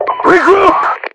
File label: regroup, RU; mpvoice